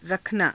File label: "rakhanaa", hindi